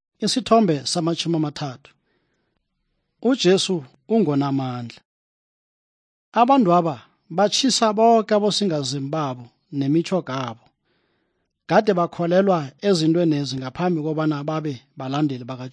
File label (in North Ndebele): good news ndebele southern, drama